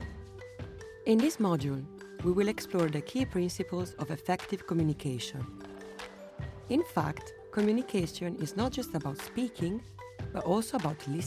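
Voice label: Italian, Accented English, E-Learning, voice demos; GoLocalise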